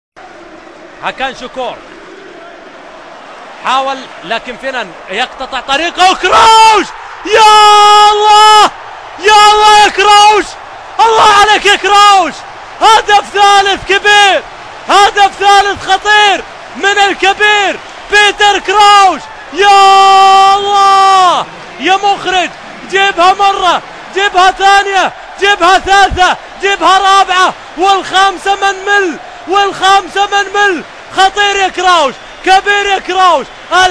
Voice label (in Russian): kommentator